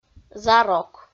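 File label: "зарок", Komi, female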